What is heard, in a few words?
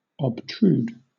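"obtrude", British English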